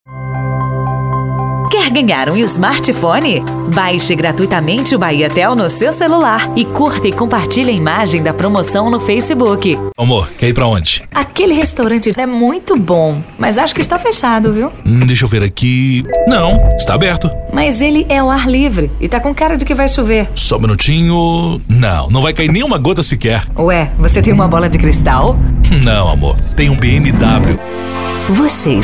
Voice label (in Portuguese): Feminina